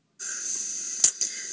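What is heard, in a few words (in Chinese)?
"xu3yuan4", Mandarin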